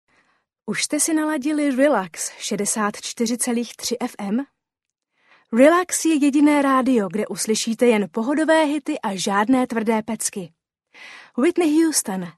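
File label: Czech, female, radio; voice over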